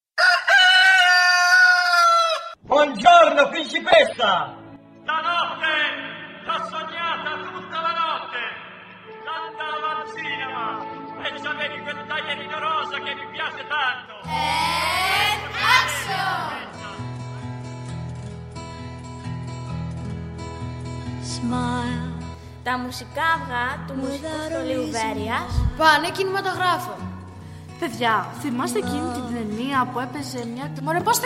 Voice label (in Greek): spot